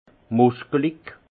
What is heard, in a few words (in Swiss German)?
"muskelich", alsace; vin; musclé